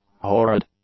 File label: "horrid", English; adjectives